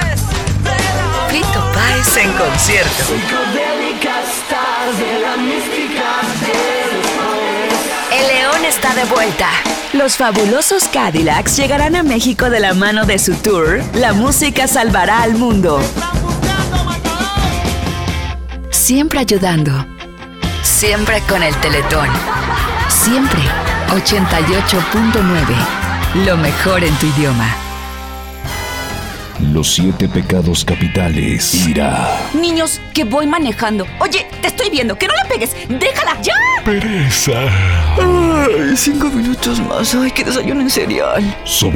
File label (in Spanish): collage radio